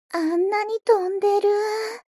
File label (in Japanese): mob 女子 台詞